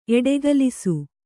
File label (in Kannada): "ಎಡೆಗಲಿಸು", Kannada